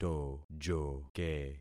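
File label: "tojoke", concatenated syllables; Affixing experiment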